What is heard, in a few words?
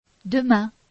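"demain", French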